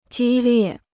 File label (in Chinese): "激烈", Chinese